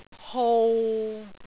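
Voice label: "hou6", Cantonese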